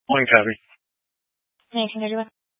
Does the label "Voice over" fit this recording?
No